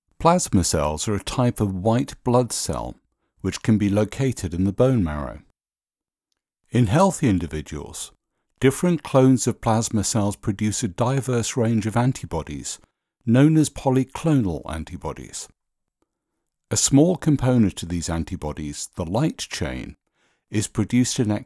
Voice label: Healthcare American Medical Explainer